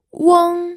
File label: "weng1", pinyin